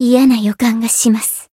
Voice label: encount fear, jp; tsukiha